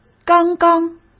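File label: "刚刚", Mandarin Chinese; chinese-flashcards; hsk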